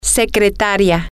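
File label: secretary, Spanish, female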